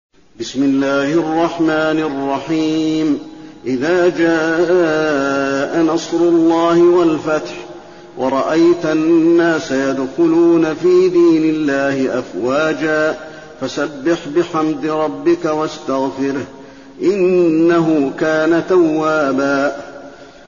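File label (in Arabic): النصر, تلاوة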